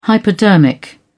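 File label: "hypodermic", British English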